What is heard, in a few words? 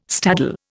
"staddle", Indian